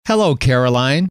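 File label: hello, male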